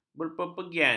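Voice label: "berpergian", Malay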